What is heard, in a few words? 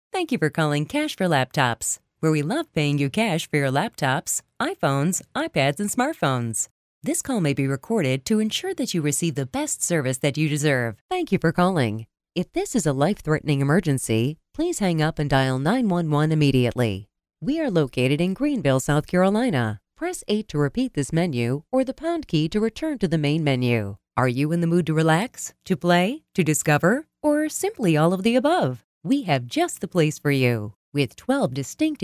IVR Phone On Hold